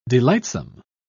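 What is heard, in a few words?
"delightsome", British English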